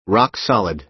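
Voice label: "rock-solid", American English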